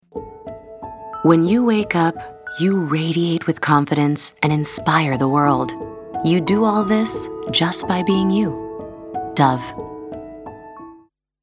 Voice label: Warm Hopeful Inspiring Conversational